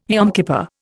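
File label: "Yom Kippur", Indian English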